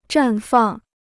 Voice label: "绽放", Chinese